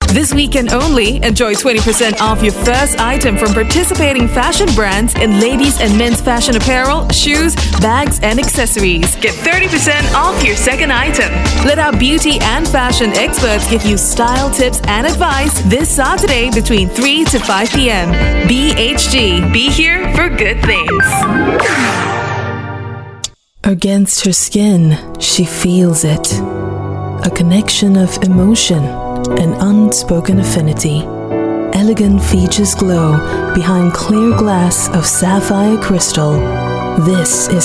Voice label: Asian English Voice Reel